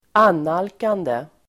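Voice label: "annalkande", Swedish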